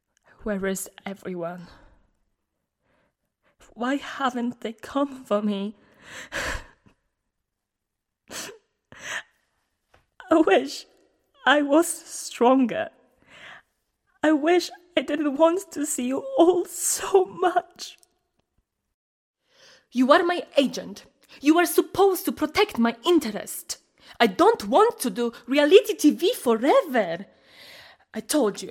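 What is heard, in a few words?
Polish, accent English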